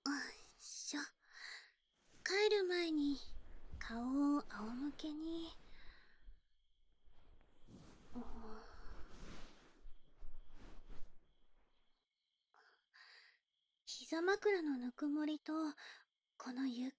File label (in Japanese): 添い寝, ASMR; ビャクの添い寝; ビャクといっしょに